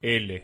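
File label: "l", Spanish pronunciation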